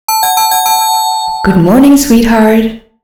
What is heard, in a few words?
female wakeup